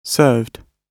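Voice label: "served", British English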